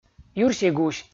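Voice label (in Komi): "юрсигусь" Komi, male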